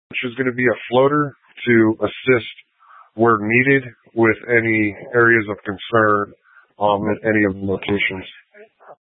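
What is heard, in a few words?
Incident Tac 3, Scanner audio recording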